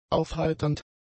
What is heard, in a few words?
"aufheiternd", German; adjectives